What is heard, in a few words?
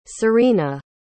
"sirena", pronounce